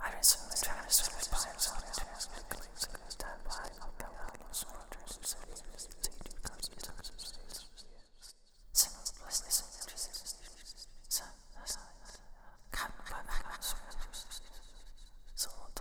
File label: Whisper; The Beginner's Guide